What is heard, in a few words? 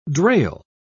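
"drail", British English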